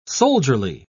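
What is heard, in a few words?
"soldierly", English, British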